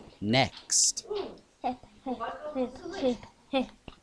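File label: "hip", spoken word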